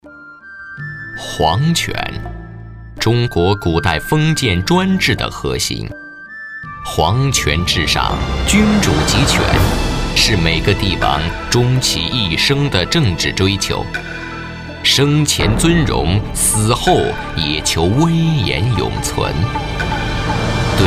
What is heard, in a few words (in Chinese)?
男, 皇陵, 纪录片, 低沉, 神秘, 娓娓道来